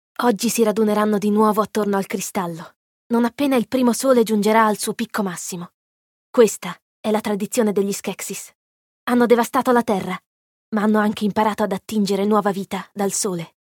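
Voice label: Italian character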